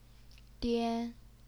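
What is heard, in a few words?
"dian", first tone, Chinese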